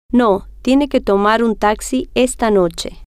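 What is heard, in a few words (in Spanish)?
no Tiene Que Tomar Taxi Esta Noche, spanish, female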